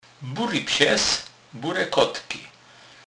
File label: bury, Kurpie; slownik